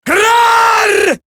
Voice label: Taunt, Female; Warframe; Eximus Grineer Female; Battle Barks

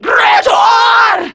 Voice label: Reload Grineer Female; Warframe; Battle Barks

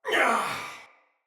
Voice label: Death Finisher Soldier Male; Battle; Barks; Dialog; Sinecure Soldier Male A

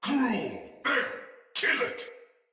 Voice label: See Player Shout, Battle; Sinecure Soldier

